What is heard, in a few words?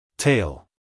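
"tale", American English male; everyone-can-use-english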